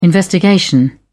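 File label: "investigation", British English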